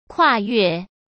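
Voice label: "跨越", Mandarin Chinese; chinese flashcards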